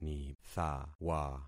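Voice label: "nithawa", concatenated syllables; Affixing experiment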